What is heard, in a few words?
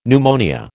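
"pneumonia", American English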